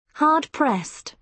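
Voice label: "hard pressed", British English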